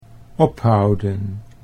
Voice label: "ophouden", Dutch

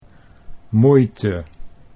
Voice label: "moeite", Dutch

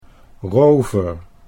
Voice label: "rover", Dutch

